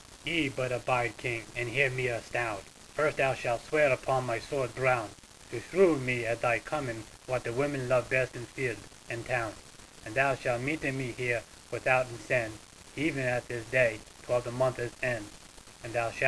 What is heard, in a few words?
monolog